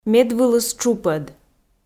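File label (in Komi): "медвылыс тшупӧд", Komi, female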